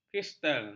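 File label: "kristal", Malay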